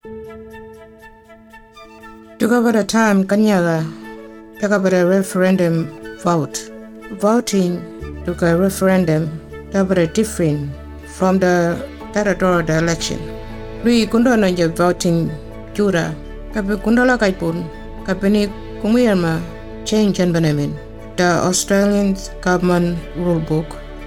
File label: Maung - Completing the ballot paper